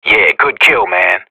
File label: radio bot nice shot, professional; player vo